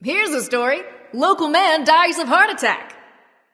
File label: taunt directed; smite; sobek; bacchus